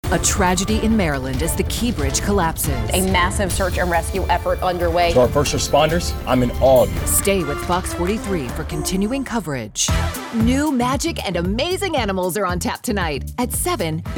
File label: Voice Over; Affiliate Demo